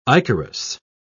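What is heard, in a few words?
"ichorous", British English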